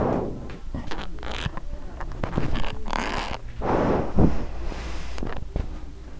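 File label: "pencil", spoken word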